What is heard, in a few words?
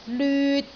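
"lyut3", Cantonese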